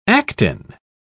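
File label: "actin", British English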